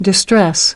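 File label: "Distress", American English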